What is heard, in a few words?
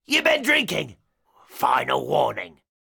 Pirate Elite Male Patrol Line; NPC; Heist Thug Captain Patrol